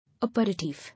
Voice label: "Aperitif", English